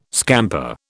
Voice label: "scamper", British

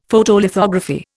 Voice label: "Photolithography", Indian; Dictionary

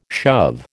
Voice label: "shove", American

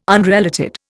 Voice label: "unrelated", Indian

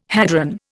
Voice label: "hadron", Indian

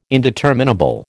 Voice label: "indeterminable", American

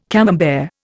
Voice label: "Camembert", Indian

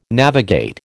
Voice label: "navigate", American

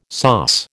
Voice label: "sauce", American

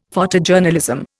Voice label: "Photojournalism", Indian; Dictionary

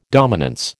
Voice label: "dominance", American

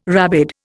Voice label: "rabid", Indian

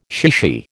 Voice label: "chichi", American

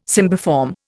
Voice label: "cymbiform", Indian

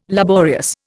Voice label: "laborious", Indian

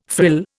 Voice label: "frill", Indian

